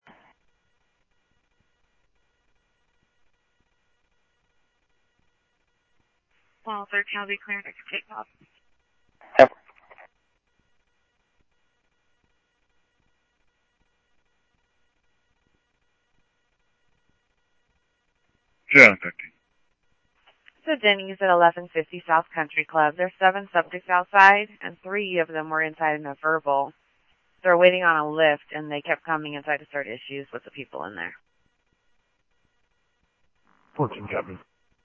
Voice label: Scottsdale PD Scottsdale Police, scanner audio; SCTSDLE PD - PD2; ThompsonPeakHigh

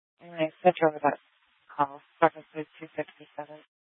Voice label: Sheriffs Office, scanner

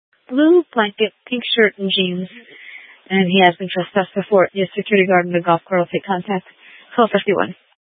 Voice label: Police Dispatch

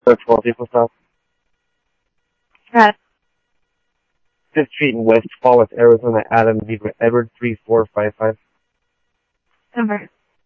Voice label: Tempe Police, scanner audio; SimulcastF-Tempe

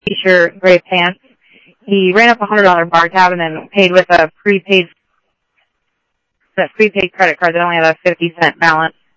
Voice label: Tempe Police, scanner; SimulcastF-Tempe; TEMPE PD A02

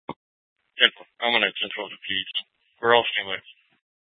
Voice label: PD Dispatch

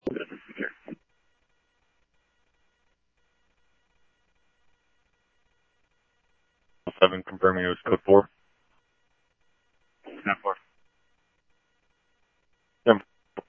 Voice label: Police, scanner audio trunk

